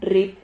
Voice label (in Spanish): "rrip", Silabas